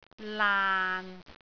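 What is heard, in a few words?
"laan6", Cantonese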